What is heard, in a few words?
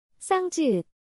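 "ㅉ", Korean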